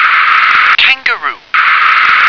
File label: "kangaroo", English